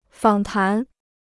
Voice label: "访谈", Chinese